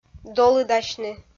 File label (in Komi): "долыдасьны", Komi